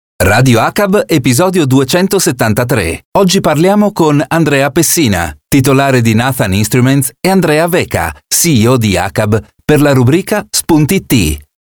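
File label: Italian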